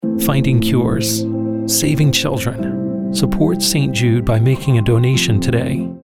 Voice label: commercial, voice-over for commercials; st jude